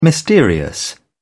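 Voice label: "mysterious", British English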